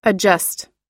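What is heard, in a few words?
"adapt", US English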